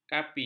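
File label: "kapi", Malay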